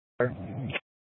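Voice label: County Fire, Scanner audio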